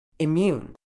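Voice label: "immune", British English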